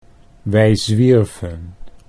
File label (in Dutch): wij zwierven, Dutch